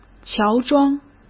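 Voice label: "乔装", Mandarin Chinese; chinese flashcards; hsk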